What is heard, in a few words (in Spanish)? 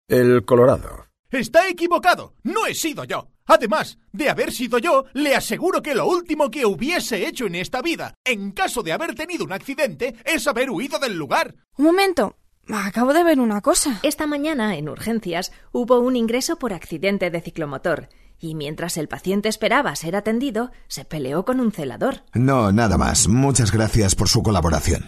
doblaje videojuego locuciones